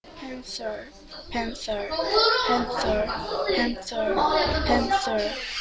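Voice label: "pencil", spoken word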